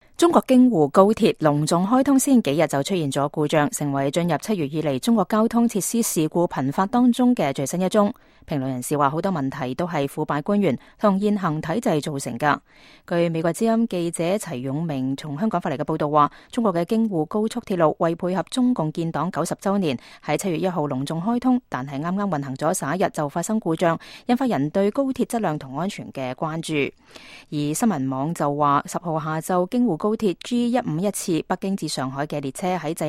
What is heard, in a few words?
cantonese